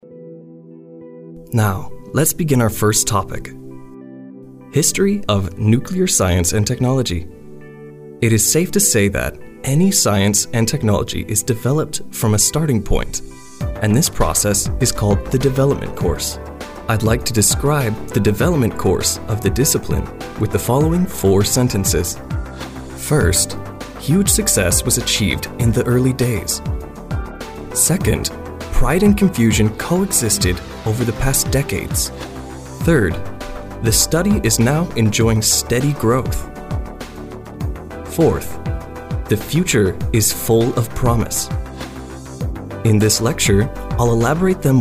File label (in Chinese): 美式英语 技术专题介绍 沉稳, 外籍男英; 外语